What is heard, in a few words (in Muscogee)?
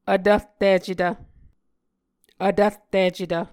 "vtvrticetv", Muscogee